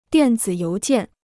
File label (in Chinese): "电子邮件", Chinese